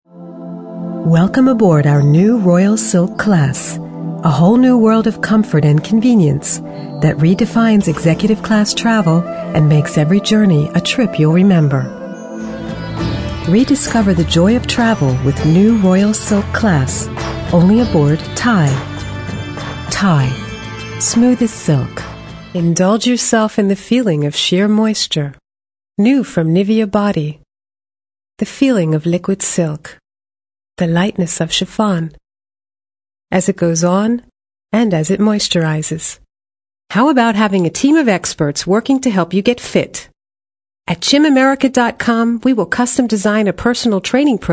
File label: commercial medley